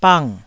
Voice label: "bang4", taiwanese syllable; 台語音節發音檔案

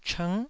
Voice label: "chng9", taiwanese

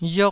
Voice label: "riok1", taiwanese syllable

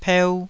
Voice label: "peu2", Taiwanese

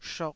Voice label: "shok2", taiwanese Syllables

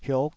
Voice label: "hiuk5", Taiwanese syllable

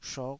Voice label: "shuk5", taiwanese Syllables

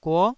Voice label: "guo9", taiwanese

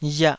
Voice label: "riah2", taiwanese

